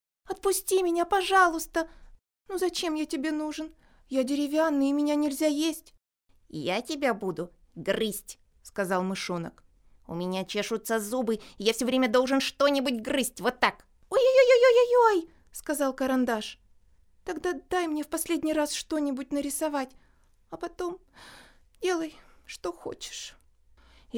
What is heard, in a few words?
female russian voice over character